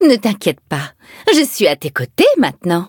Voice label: levelup fr